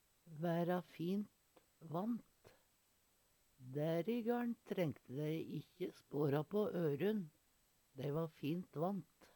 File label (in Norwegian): "væra fint vant", Numedalsmål; Dær i gaL`n trenkte dei ikkje å snu på ørun. Dei va fint vant.